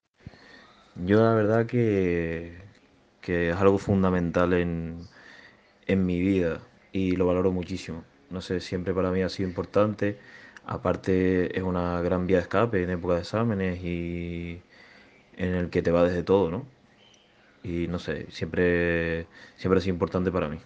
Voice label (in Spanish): audio entrevista